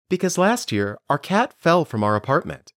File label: Dictation